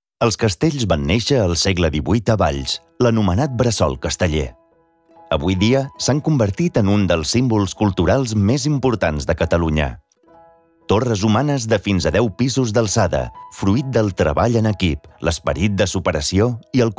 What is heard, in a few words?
catalan audio guides; espanhol da Espanha e catalão